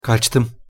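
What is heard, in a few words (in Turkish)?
"kaçtım", Turkish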